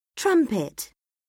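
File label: "trumpet", English; Oxford Word Skills Intermediate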